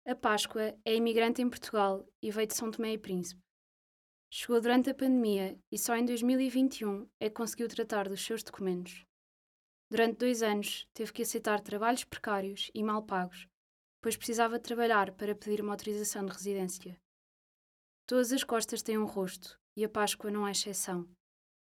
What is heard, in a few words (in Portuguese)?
LOCUCAO; A Mensagem da Páscoa